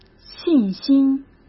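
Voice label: "信心", Mandarin; chinese flashcards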